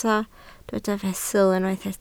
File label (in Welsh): "fesul", Welsh